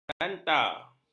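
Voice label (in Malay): "danta", Malay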